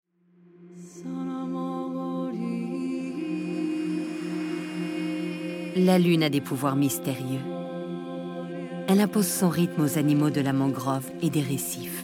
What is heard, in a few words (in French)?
narration; islands in time